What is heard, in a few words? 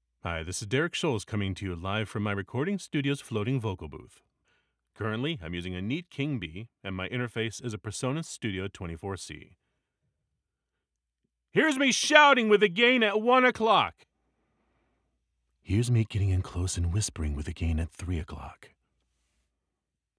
Raw Room Sample